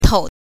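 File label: "tou", Mandarin Chinese syllable, neutral tone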